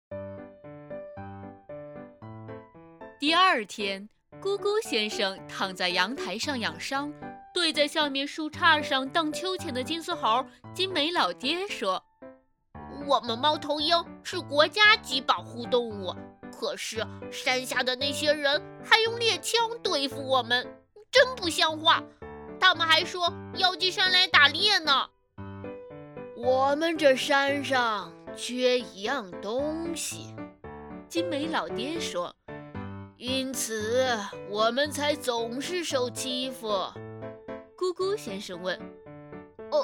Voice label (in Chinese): 儿童读物